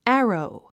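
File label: "arrow", English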